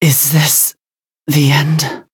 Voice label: defeat, en; cookie run